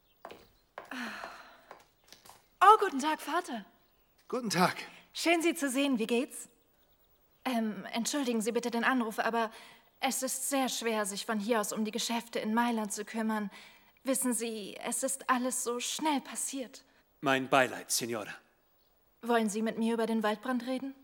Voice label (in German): Freundlich Nobel